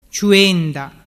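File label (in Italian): "ciuenda", dialect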